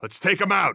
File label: radio lock n load; Counter Strike Model Pack; CS:GO; phoenix coop